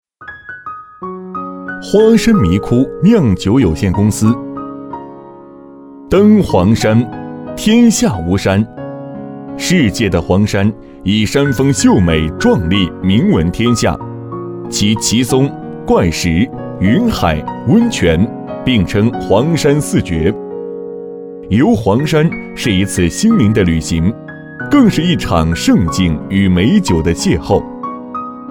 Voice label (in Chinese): 男 酿酒公司（舒缓）